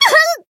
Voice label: damaged, jp; tiramisu